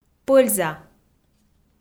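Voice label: "пӧльза", Komi, female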